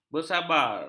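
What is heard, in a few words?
"bersabar", Malay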